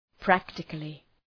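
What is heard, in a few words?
"practically", English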